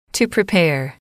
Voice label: "to prepare", English